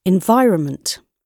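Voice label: "environment", British English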